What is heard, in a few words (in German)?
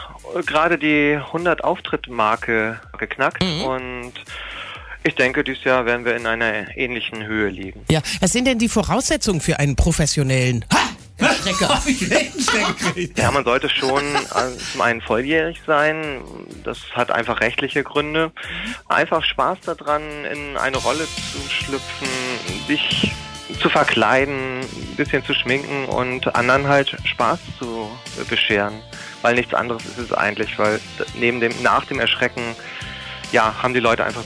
Interview Scream Team; RSH